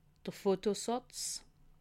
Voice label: "fotosatz", alsace

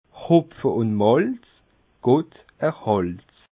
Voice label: "hopfe un malz", alsace, Expressions populaires; que dieu protège houblon et malt

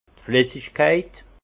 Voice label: "flessigkeit", alsace; fluidité; vin

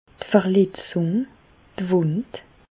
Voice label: "verletzung", Bas Rhin; medecine; blessure

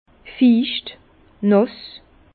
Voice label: "ficht", Bas Rhin; vin; mouillé

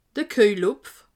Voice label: "kuejelhopf", alsace

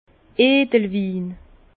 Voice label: "edelwin", Bas Rhin; vin; vin noble

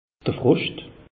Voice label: "froscht", alsace; meteo

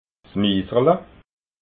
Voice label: "miserla", alsace

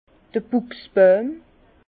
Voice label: "buchs bojm", Bas Rhin; vin; buis

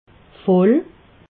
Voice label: "voll", alsace

